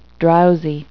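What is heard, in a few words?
"drowsy", English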